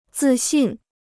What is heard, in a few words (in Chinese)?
"自信", Chinese